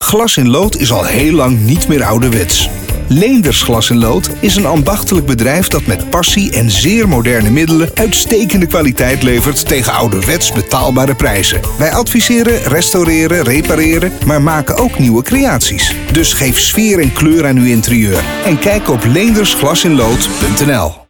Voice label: commercial; Keizerstad